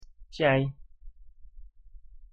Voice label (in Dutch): "ceai" Roemeens; thee-in-andere-talen